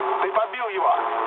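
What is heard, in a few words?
player killed enemy, mood low, Russian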